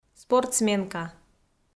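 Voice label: "спортсменка", Komi, female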